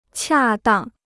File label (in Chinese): "恰当", Chinese